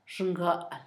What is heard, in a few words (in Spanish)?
nuca, Zapoteco, Asunción Ocotlan; Cuerpo; INALI; Haspelmath